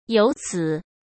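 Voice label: "由此", Mandarin Chinese; chinese-flashcards; hsk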